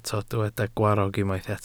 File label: "gwarrog", Welsh